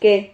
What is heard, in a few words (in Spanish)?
"que", Silabas